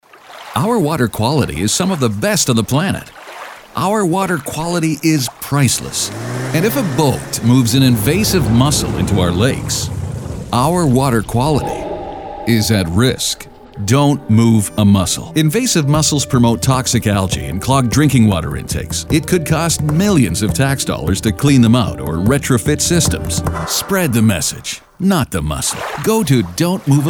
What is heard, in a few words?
radio ad